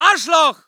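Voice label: voice german infantry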